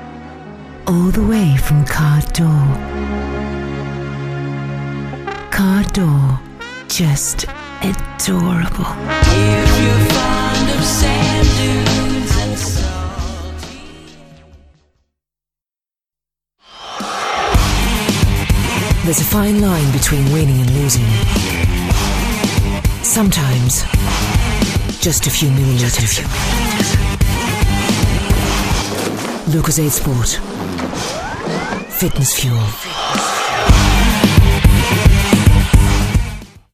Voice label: female English with slight northern and undetectable accent; english and greek; voices